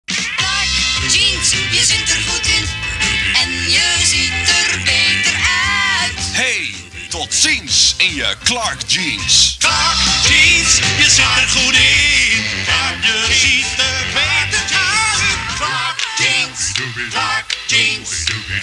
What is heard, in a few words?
commercial